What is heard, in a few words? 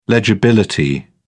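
"legibility", British English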